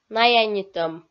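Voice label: "наянитӧм", Komi, female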